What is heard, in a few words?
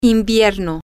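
winter, Spanish, female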